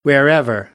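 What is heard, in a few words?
"wherever", American, English; AmericanBritish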